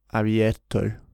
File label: "abierto" incorrect, Spanish; Allophones; o vs a